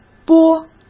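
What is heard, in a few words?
"拨", Mandarin Chinese; chinese-flashcards; hsk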